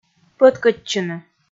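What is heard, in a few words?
"пӧткӧдчыны", Komi, female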